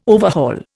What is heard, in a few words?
"overhaul", Indian